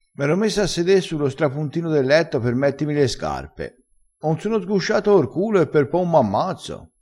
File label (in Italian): "strapuntino", crespinese